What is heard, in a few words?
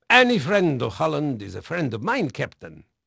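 Dutch male, voice; Pirates Of The Caribbean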